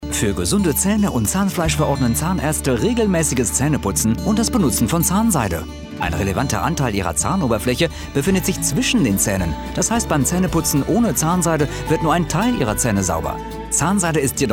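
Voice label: German, male; voice over talent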